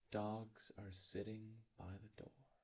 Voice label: real calm for anger, speech; GAN for speech spectrogram